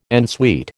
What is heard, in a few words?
"en suite", American